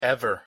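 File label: "ever", English pronunciation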